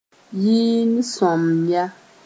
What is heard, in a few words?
"yɩɩn-sõamya", Mooré; psalms